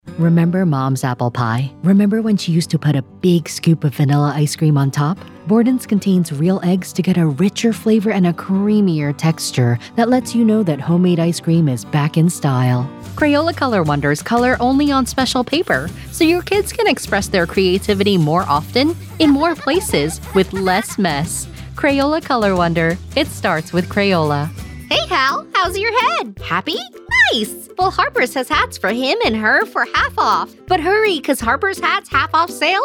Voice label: Commercial

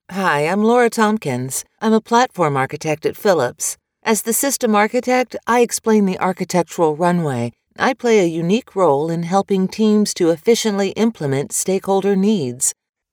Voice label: ELearning Character

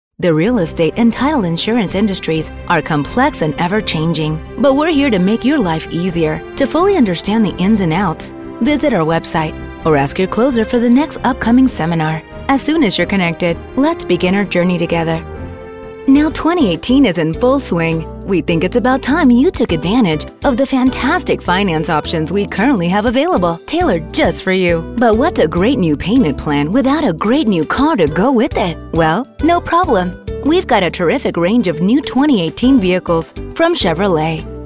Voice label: IVR English

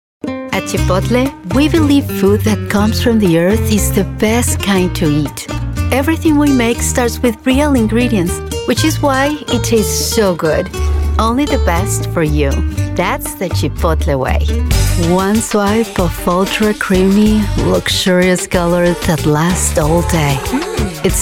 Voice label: Commercial in Accented English

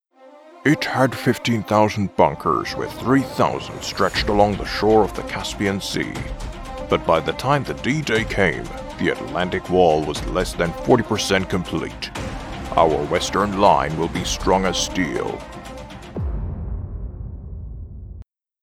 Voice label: Middle-aged Game Narrator Sample

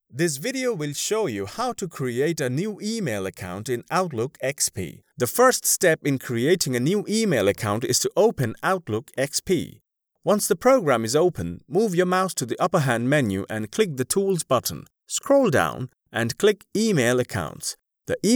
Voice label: English eLearning

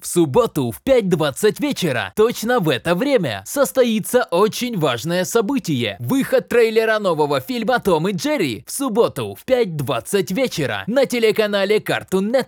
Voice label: Original Script in Russian; Tom Jerry

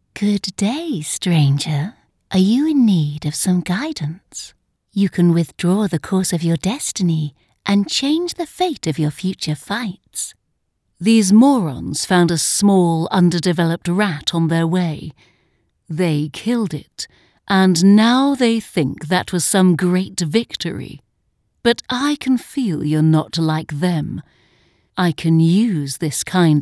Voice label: Video Game Character

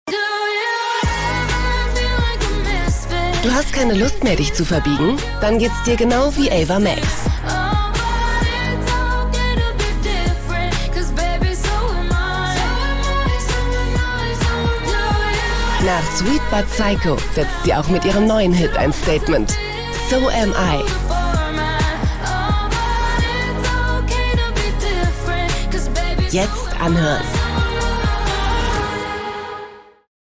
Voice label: Commercial; Spotify

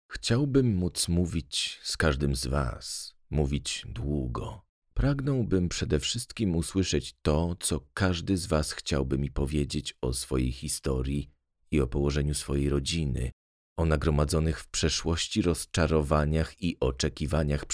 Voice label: Autobiography Narrator

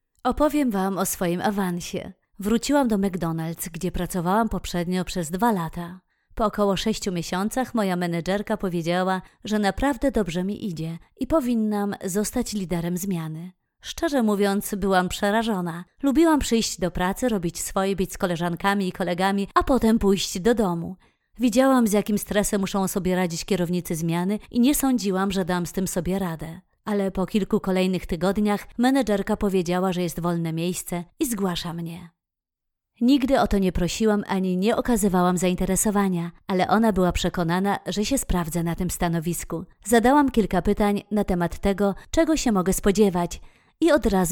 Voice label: Voice over; Mc Donald

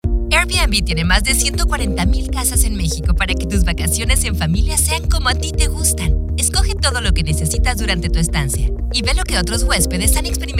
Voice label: Collage BROADCAST

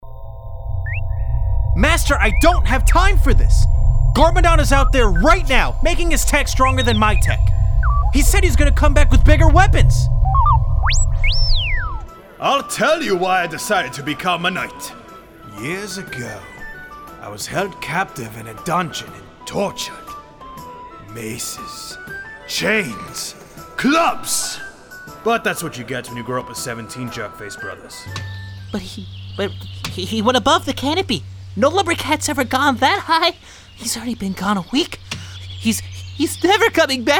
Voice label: Character

